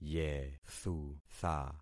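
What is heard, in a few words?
"yethutha", concatenated syllables; Affixing experiment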